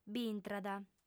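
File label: "b'intrat", female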